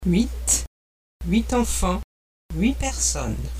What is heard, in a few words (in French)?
"huit", phonetique